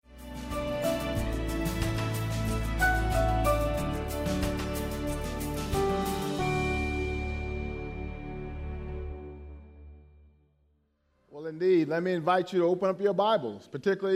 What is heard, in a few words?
English Sermon